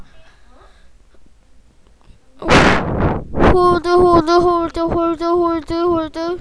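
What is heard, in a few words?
"hold", spoken word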